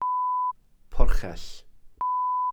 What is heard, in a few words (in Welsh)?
"porchell", glossary